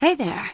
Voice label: Human Female Warrior NPC Greeting